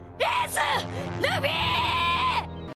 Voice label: Yelling; Sabo